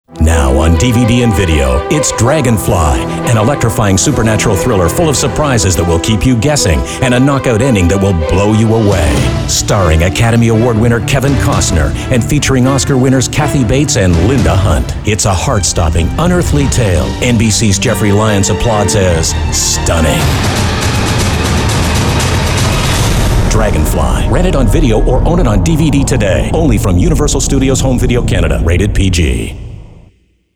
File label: english canadian movie trailers